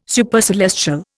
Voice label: "Supercelestial", Indian

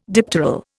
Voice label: "dipteral", Indian

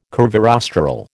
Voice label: "curvirostral", American

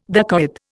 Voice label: "dacoit", Indian

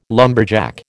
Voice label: "lumberjack", American

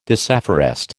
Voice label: "disafforest", American

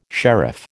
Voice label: "sheriff", American

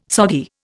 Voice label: "soggy", Indian

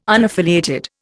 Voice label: "unaffiliated", Indian

